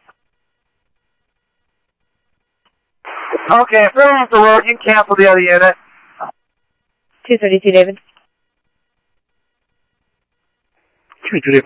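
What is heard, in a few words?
Phoenix police department, scanner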